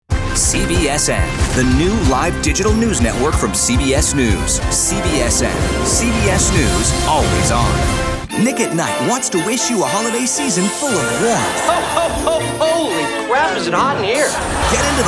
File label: promo, men